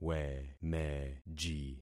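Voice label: "wemeji", concatenated syllables; Affixing experiment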